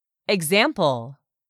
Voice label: "EXAMPLE", english; 3000 words